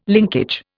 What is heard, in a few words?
"linkage", Indian